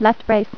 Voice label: "Left Brace", Voice Female; TalkingKeys